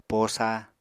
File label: "posa", Apatani